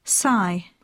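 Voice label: "sigh", English; poitiers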